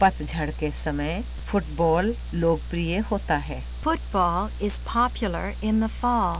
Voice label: hindi